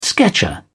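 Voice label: "sketcher", British English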